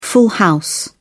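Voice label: "full house", British English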